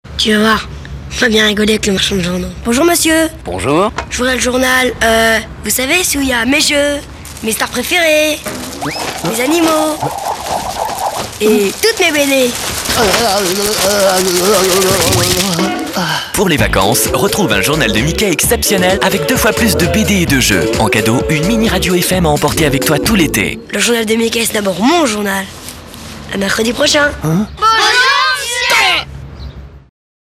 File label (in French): junior, voix off jeunesse; LE JOURNAL DE MICKEY